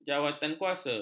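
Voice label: "jawatankuasa", Malay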